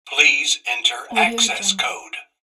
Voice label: please enter access code voice message

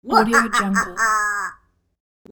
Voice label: kid vampire

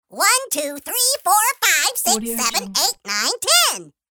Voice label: cartoon character counting to ten fast

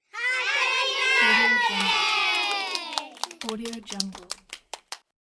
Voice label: children saying happy birthday

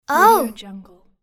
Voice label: "oh", female child; saying oh

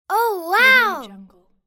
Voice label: female child saying oh wow

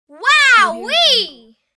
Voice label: child saying wow wee

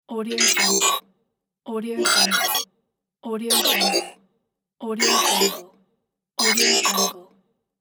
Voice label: robot voice

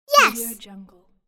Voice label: child saying "yes"

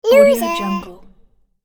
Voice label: cartoon play voice says loser

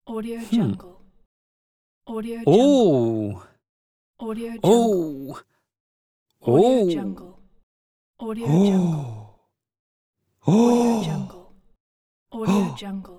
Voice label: male voice expressions

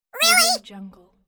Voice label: cartoon character really

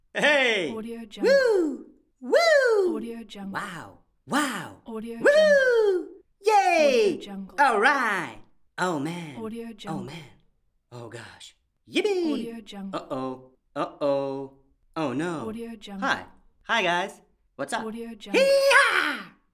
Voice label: cartoon character voice; cartoon character voice pack 2; moonaudioproduction

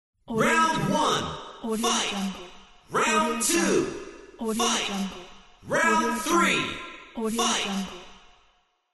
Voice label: arcade style round announcer voice

